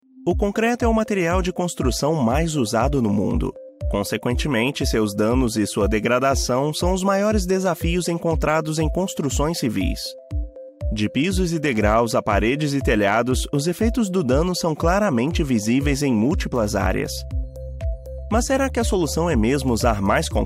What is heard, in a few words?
INTERNET INSTITUTIONAL MOVIE CORPORATIVO PROFESSORIAL CONVERSADO BUSINESS